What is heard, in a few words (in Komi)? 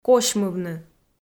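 "косьмывны", Komi-Zyrian, female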